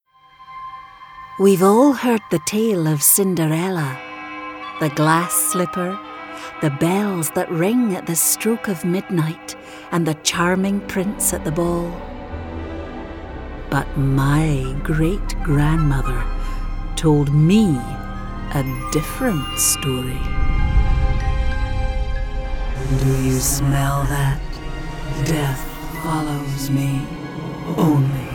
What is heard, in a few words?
GAMING SHOWREEL